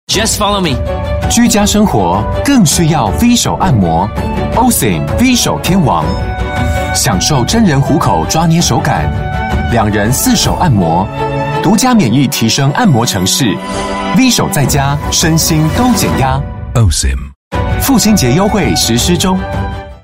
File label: Taiwanese